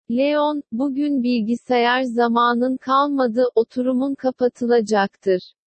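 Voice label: speech sample Turkish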